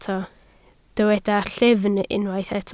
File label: "llyfn", Welsh